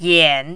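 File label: "yan3", mandarin, dipping tone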